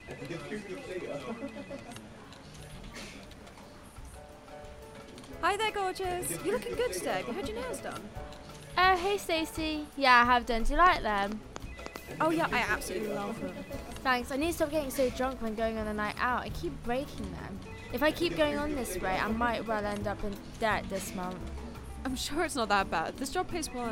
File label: Radio drama